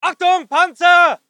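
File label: voice german infantry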